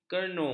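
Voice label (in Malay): "kernu", Malay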